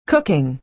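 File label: "cooking", American English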